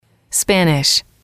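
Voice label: "language", English, female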